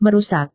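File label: "merusak", Indonesian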